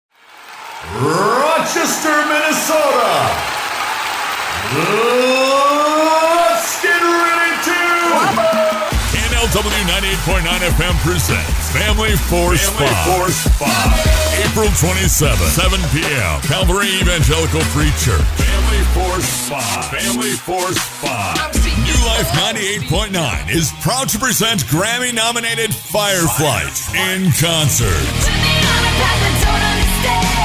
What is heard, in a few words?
english american others